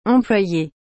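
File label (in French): "employer", French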